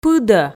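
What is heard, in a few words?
"пыдӧ", Komi, female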